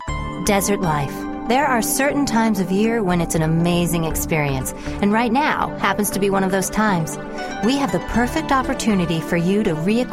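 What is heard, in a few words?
narration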